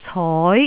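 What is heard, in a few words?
"coi2", Cantonese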